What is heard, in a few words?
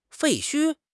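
"废墟", Chinese; HSK6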